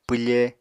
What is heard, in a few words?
"pwfe", Apatani